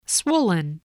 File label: "swollen", English